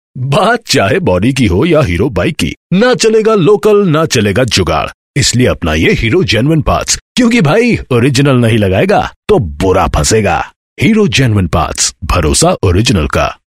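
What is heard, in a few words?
Product male voice over hindi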